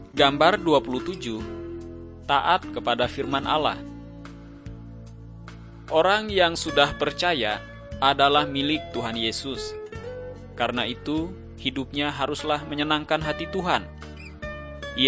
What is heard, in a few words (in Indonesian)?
kabar baik good news bahasa indonesia